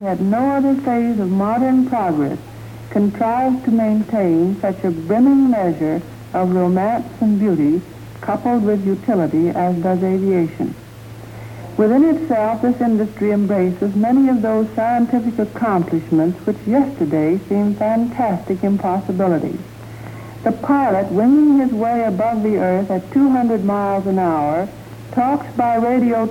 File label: Speech, american english dialect recordings